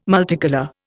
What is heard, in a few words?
"multicolour", Indian